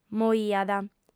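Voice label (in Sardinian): "moiat", Sardinian, female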